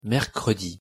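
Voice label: "mercredi", French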